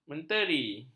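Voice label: "menteri", Malay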